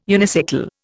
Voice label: "unicycle", Indian